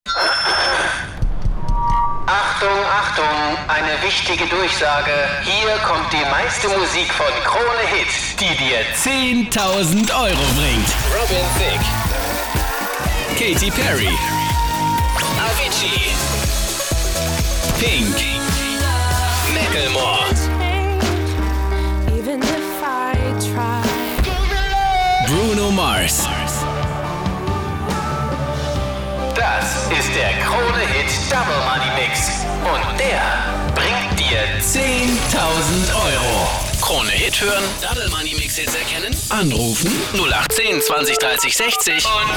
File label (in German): Station Voice Sprecher